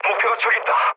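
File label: Korean near waypoint mood low; speech; War Thunder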